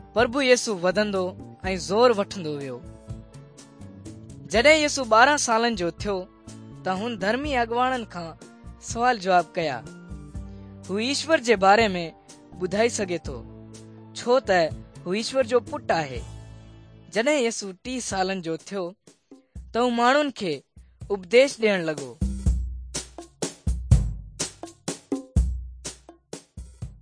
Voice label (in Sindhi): good news sindhi dukslinu, drama